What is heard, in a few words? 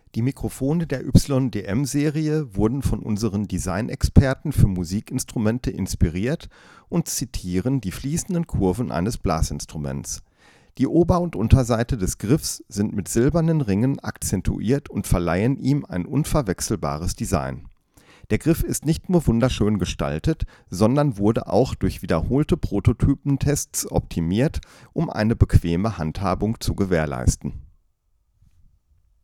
Shure SM58